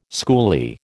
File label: "schoolie", American